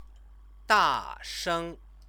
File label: "dasheng", Chinese; 大 声; loudly; adverb